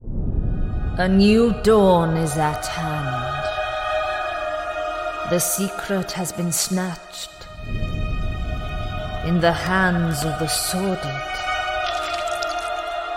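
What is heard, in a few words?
Welsh English female fantasy voice over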